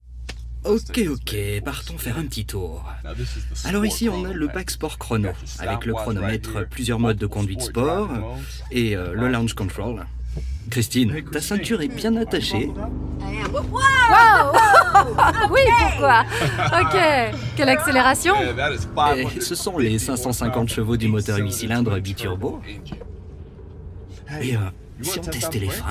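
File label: french documentaries, male; french voice actors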